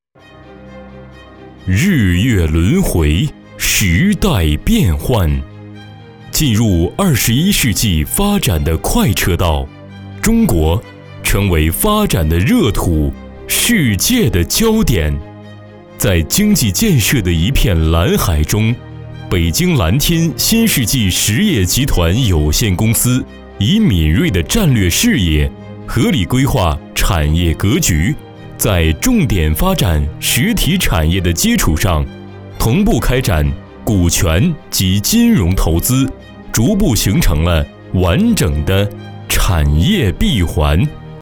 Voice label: 集团宣传片

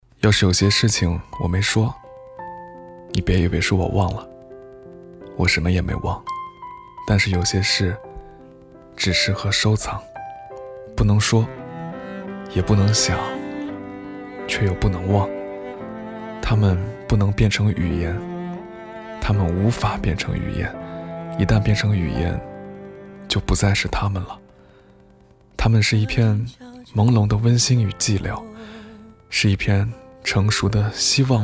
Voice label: 自媒体配音 温柔 情感

